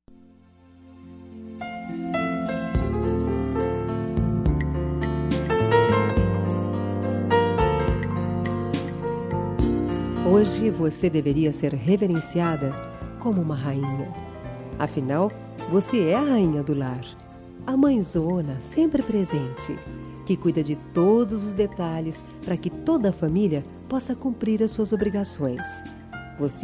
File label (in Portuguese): Dia das Maes, F